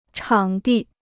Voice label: "场地", Chinese; chǎng dì; space; site

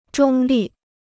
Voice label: "中立", Chinese

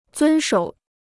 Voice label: "遵守", Chinese

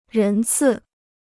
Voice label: "人次", Chinese

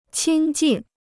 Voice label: "亲近", Chinese

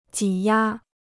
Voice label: "挤压", Chinese

